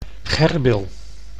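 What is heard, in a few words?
"gerbil", Dutch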